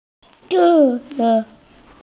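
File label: "jin1yu2", Mandarin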